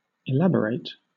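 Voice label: "elaborate", British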